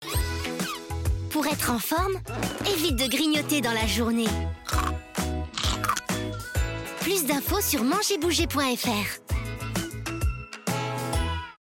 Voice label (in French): SPOT, voix-off; FORME